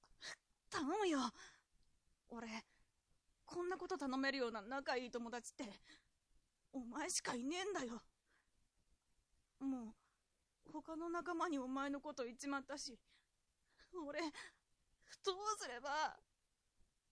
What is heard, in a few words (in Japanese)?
dansei